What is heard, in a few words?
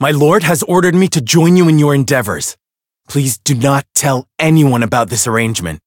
Voice story